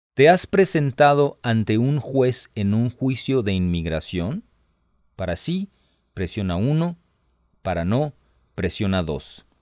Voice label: Spanish, hotline